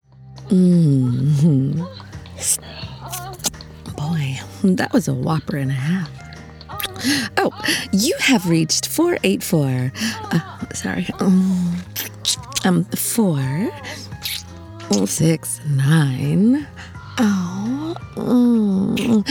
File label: Answering Machine